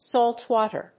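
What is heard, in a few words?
"salt water", English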